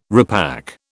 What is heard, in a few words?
"repack", British